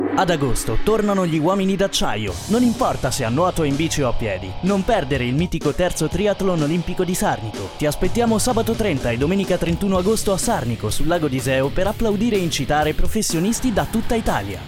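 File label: Italian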